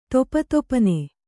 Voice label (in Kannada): "ದಪದಪನೆ", kannada